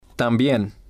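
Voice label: "tambien", Spanish, male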